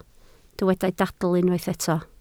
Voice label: "dadl", Welsh